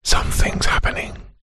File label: human male says somthings happening